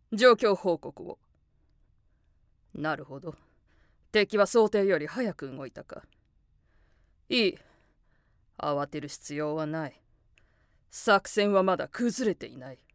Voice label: 冷静な女性指揮官

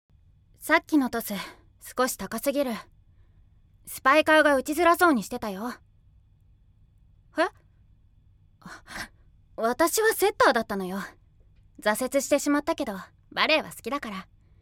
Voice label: 裏がある少年

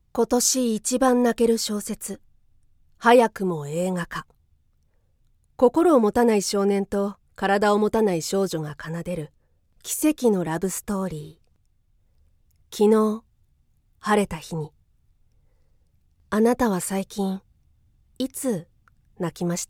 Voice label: 映画CM・しっとり

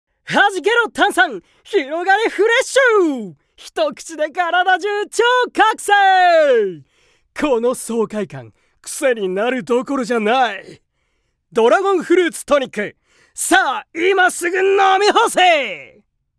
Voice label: 飲料CM

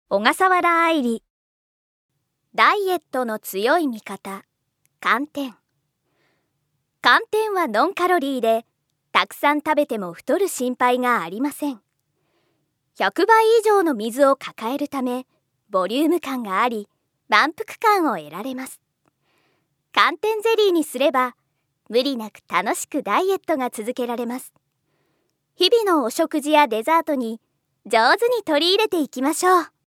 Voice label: ストレートナレーション

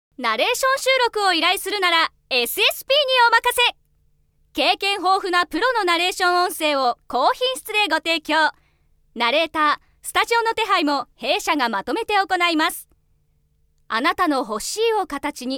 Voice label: 企業CM(明るめ)

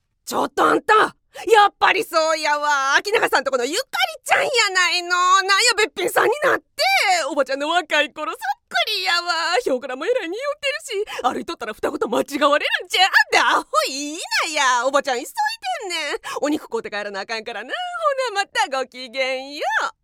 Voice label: 関西弁・おばちゃんのマシンガントーク

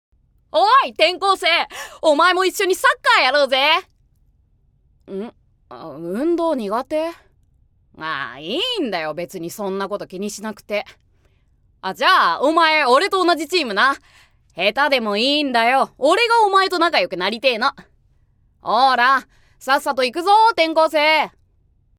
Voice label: 少年(元気)

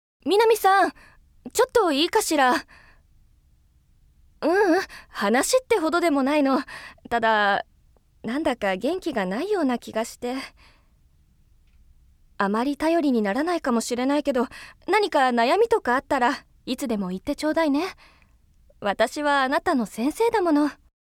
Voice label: 気に掛ける女性教師(大人 おだやか)

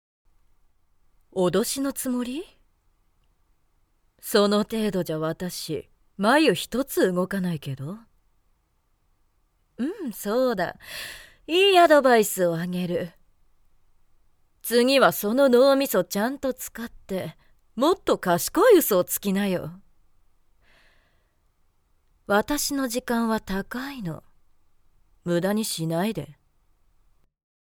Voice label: 外画 20代 挑発的な女性

